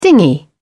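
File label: "dinghy", British English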